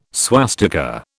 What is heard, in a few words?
"swastika", British